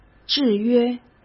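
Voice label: "制约", Mandarin Chinese; hsk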